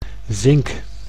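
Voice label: "zink", Dutch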